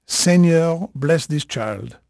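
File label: French male, voice; Pirates Of The Caribbean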